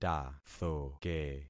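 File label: "tathoke", concatenated syllables; Affixing experiment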